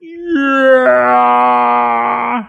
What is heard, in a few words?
"yeah", long strained; ooh ahh